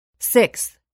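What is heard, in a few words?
"sixth", English word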